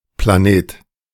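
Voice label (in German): Planet, German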